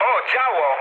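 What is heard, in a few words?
damaged aa, mood low, Polish speech; War Thunder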